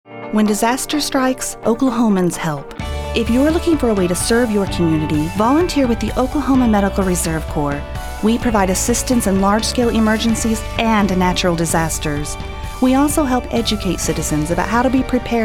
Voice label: Radio PSA